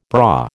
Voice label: "braw", American